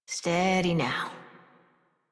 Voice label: Horse Calm Adult; Warframe; Duviri

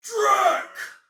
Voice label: Attack Normal, Soldier Male; Sinecure

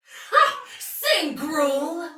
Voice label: See Ally Hit, Soldier Female, Battle; Dialog; Barks; Sinecure

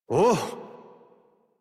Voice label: Horse Stop Walk Adult; Warframe; Duviri

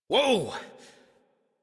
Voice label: Horse Stop Adult; Warframe; Duviri

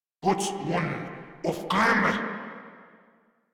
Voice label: Player Spotted, Grineer Male

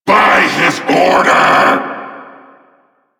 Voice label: Vocal Attack

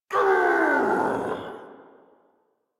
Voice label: angry, en; Warframe; Tyl Regor Boss; Efforts

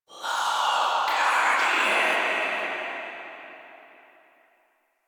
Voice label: Cave Whispers; Orokin; Warframe; Ambience; Stalker

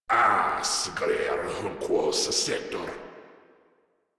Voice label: Patrol, Grineer Male; Warframe

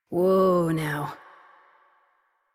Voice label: Horse Stop Walk Adult; Warframe; Duviri

